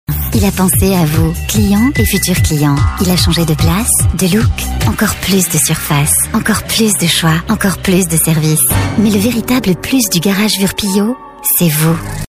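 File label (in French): Belgian French, female, commercial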